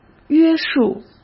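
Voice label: "约束", Mandarin Chinese; chinese flashcards; hsk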